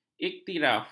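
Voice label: "iktiraf", Malay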